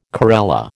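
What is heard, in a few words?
"corella", American English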